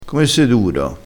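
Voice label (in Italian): "duro", dialettale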